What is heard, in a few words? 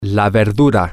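the vegetable, Spanish, male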